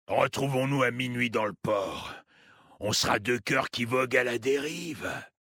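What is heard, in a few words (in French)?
French Homme Flirt; Port; Kultirassien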